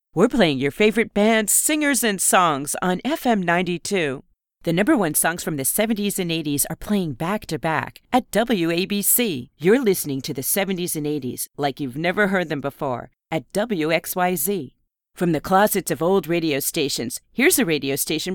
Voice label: Radio imaging

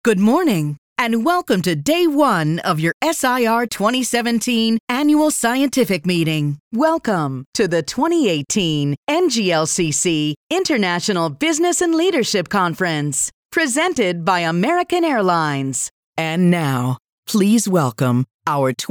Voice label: AWARD SHOW Corp

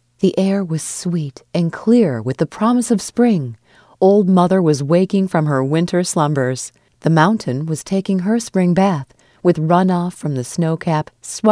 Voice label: Storyteller

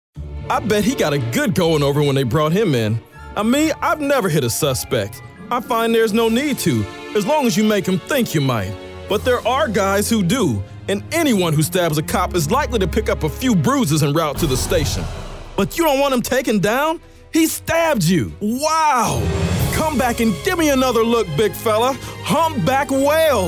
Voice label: Childrens Book

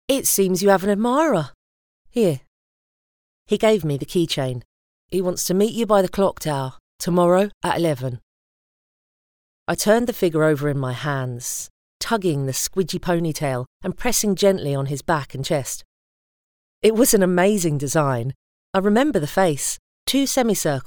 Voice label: Audiobook; Kazuo

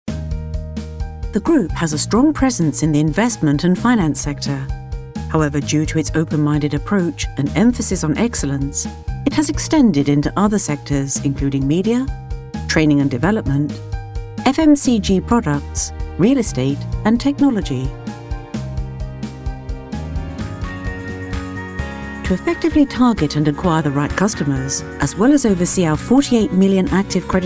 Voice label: Corporate

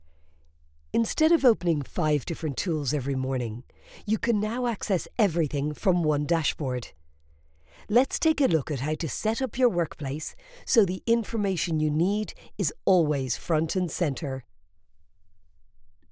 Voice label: Tech, E-Learning, Irish female voiceover

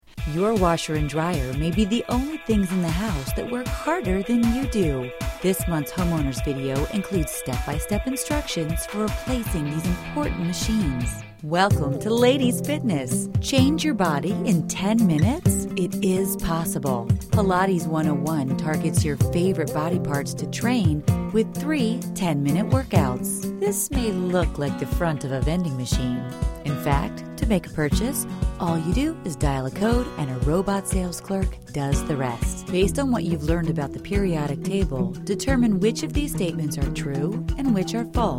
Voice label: Narration educational

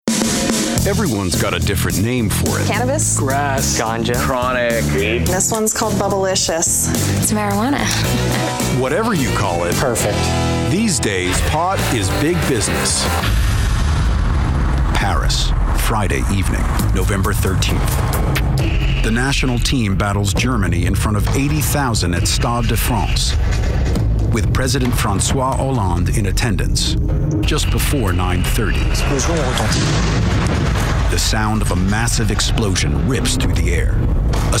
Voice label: NARRATION

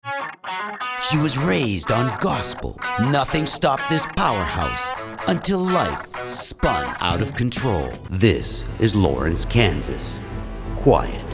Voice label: narration

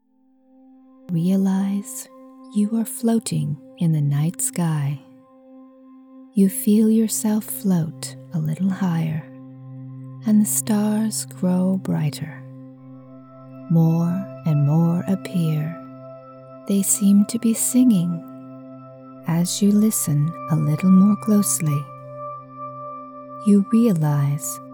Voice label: Guided Med Affirm

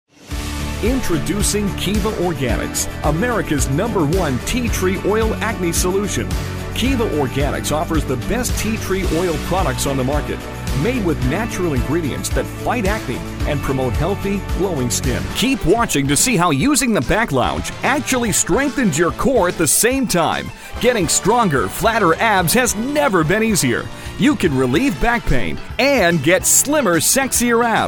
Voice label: Infomercial Direct Response

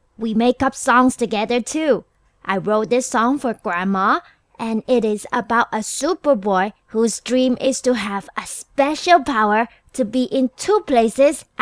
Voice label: English boy 2 emotions

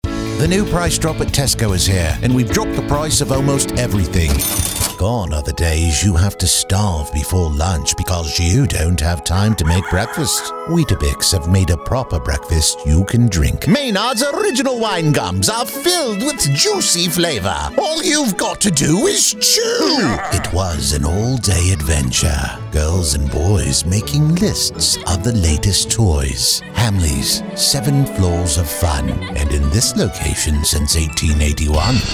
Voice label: UK Commercial

